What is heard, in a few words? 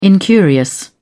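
"incurious", British English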